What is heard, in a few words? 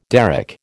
"derrick", American